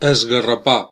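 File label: "scratch", Catalan; gcompris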